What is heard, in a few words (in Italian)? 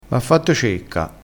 "cecca", crespinese